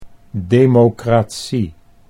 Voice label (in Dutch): "democratie", Dutch